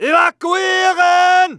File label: fear, dialogue; mohaa